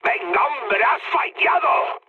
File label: bomb failure, mood high, speech; War Thunder